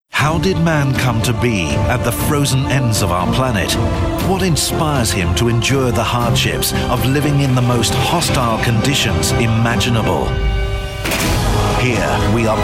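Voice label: documentary, male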